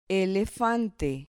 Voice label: "elefante", Spanish